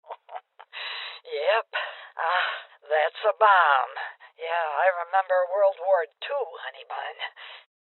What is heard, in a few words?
Female Old; rebel cops 2019 gamerip; Bomb Spotted